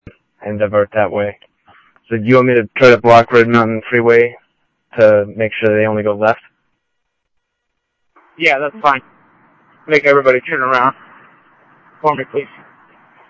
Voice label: Mesa Simulcast, MESA PD, scanner audio

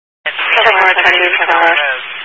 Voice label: Highway Patrol, scanner; DPS; Dist 04 Yuma

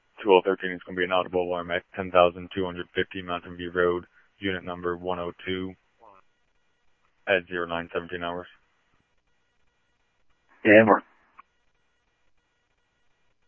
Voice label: Police, scanner audio

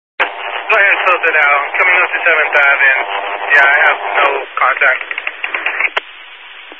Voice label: Highway Patrol, scanner; DPS

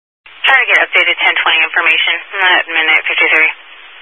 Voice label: Highway Patrol, Phoenix Metro East Area, scanner

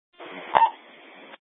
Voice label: Public Transit System Light Rail Ops, scanner

